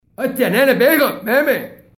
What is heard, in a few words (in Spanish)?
murciano